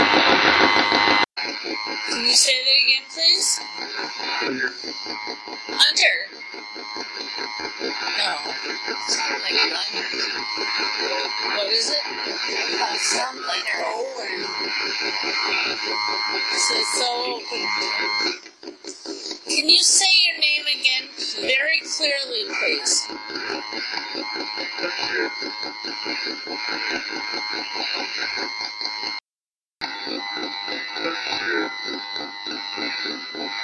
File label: radio voices name